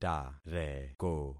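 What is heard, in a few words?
"tareko", concatenated syllables; Affixing experiment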